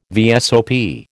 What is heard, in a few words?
"VSOP", American